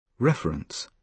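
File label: "reference", British English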